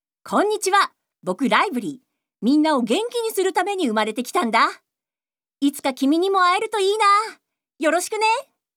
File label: Narration